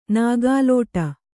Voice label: "ನೂಕಲಾಟ", kannada

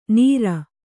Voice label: "ನೀರ", Kannada

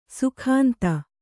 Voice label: "ಸುಗಂಧ", Kannada

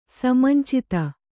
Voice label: "ಸಮಂಚಿತ", kannada

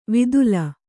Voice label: "ವಿದುಲ", Kannada

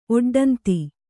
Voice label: "ಒಡ್ಡಂತಿ", kannada

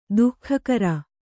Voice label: "ದಗಾಕೋರ", kannada

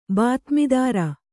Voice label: "ಬಾತ್ಮೀದಾರ", kannada

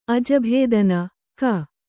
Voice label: "ಅಜಭೇದನ(ಕ)", Kannada

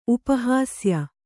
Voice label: "ಉಪಹಾಸ್ಯ", Kannada; upa hāsya; noun

